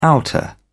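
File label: "outer", British English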